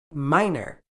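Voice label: "minor", British English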